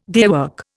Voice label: "daywork", Indian

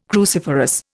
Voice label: "cruciferous", Indian

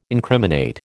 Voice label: "incriminate", American

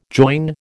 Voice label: "join", American

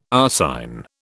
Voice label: "arsine", British

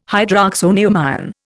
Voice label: "hydroxonium ion", Indian

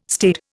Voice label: "staid", Indian

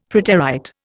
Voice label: "preterite", Indian; Dictionary

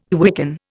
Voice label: "quicken", Indian

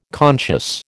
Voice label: "conscious", American

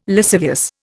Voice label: "lascivious", Indian

